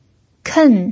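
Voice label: "ken" fourth tone, pinyin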